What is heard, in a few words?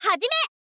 "hazime", info girl voice